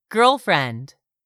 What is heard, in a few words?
"girlfriend", English; 3000 words